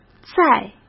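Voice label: "在", Mandarin Chinese; hsk; chinese-flashcards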